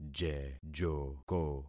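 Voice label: "jejoko", concatenated syllables; Affixing experiment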